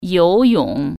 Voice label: "swim", Chinese

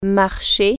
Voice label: walk, french

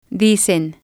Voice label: "dicen", Spanish

